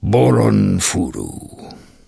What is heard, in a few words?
Tuskarr Male Farewell; Warrior